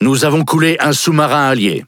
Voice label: Speech Destroy Ally Sub, FR; World Of Warships